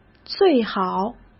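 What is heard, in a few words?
"最好", Mandarin Chinese; hsk; chinese-flashcards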